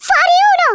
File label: FATALITY, Italian; Worms Armageddon